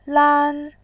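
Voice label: "lan1", Mandarin Chinese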